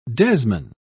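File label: "desman", British English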